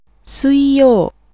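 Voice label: Japanese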